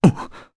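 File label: Vox Sur Japanese; Pavel; kingsraid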